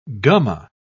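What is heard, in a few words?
"gumma", British English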